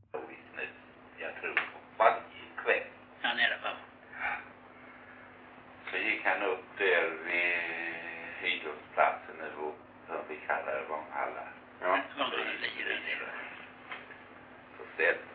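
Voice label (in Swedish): Rolfstorp Halland, dialekter; Folkminnen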